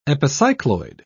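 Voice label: "epicycloid", British English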